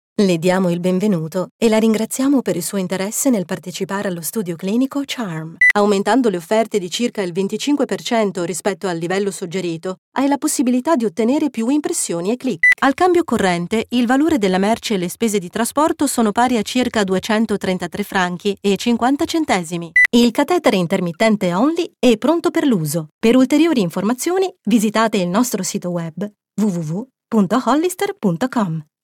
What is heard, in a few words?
E-learning